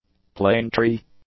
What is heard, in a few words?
"plane-tree", English; nouns